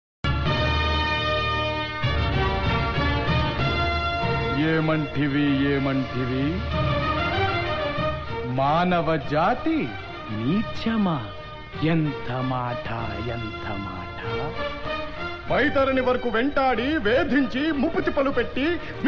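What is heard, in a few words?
dialogue Telugu Ringtone; yamadonga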